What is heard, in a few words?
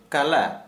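"deaf", Santali, male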